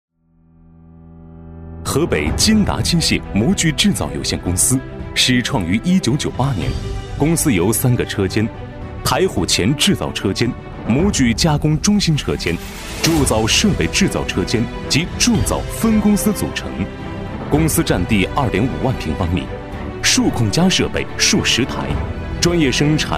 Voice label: 男 专题配音 大气厚重; 河北金达; 抑扬顿挫

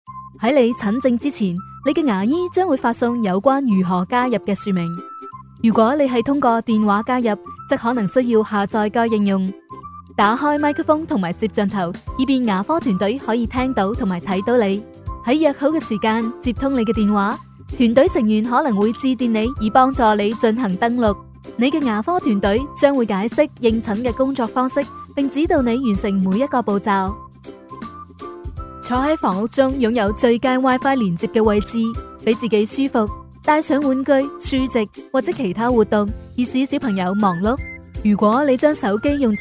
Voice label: 女, 粤语, 指导视频

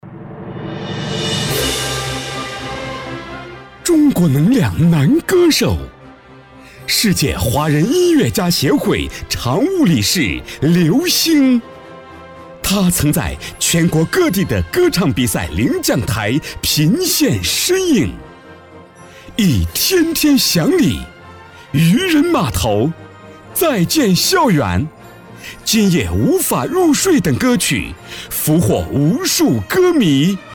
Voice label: 男, 出场介绍, 歌手介绍; 成熟稳重; 大气浑厚

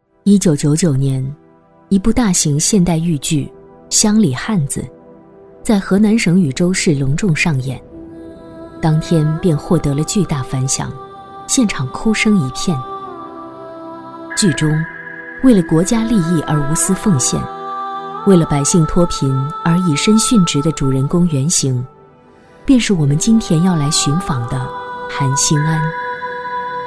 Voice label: 女 纪录片 稳重低沉; 找着才心安

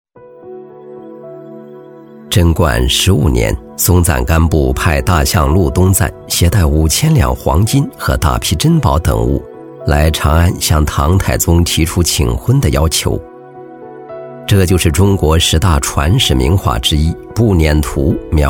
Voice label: 男 历史纪录片 讲解感; 文成公主入藏

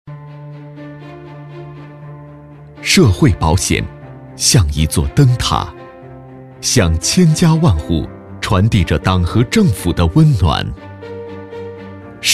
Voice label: 男-党政专题 社会保险中心-厚重正气; 西安市社会保险中心; 磁性大气

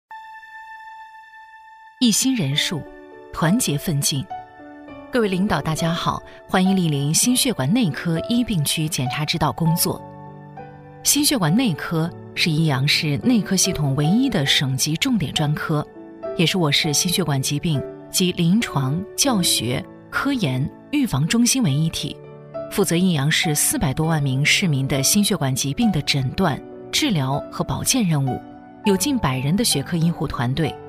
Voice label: 女 医院宣传 心血管内科 正式亲切; 质感知性; 温柔知性

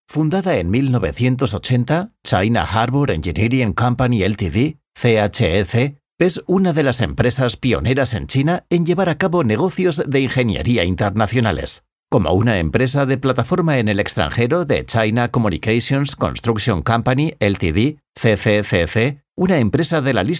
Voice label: 西班牙语男声

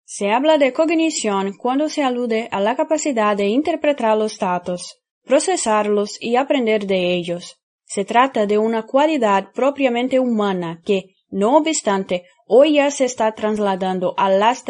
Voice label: 葡萄牙语女声

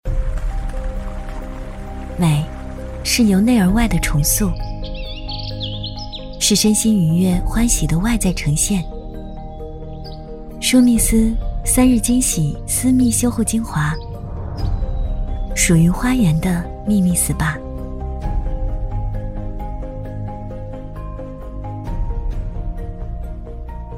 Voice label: 女 TVC广告 品质柔和; 舒密丝

